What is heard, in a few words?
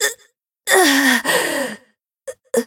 death, zh